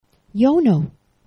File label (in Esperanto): "jono", esperanto; geneva